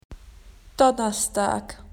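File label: "Thursday", Australian English; PictureDictionary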